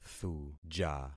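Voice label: "thuja", concatenated syllables; Affixing experiment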